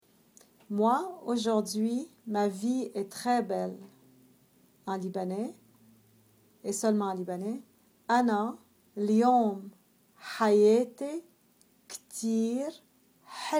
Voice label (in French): vie est belle libanais